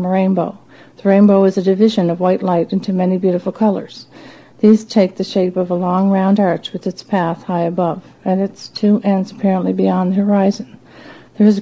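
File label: prince edward, International Dialects of English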